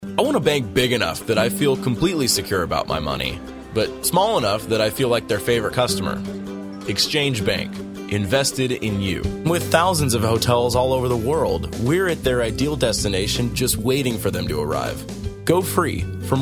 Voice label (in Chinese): 外籍英男, 金融酒店宣传片